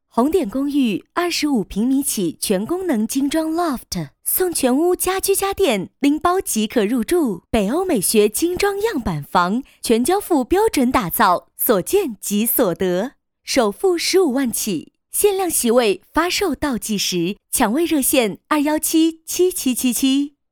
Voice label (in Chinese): 女 广告 公寓; 红点公寓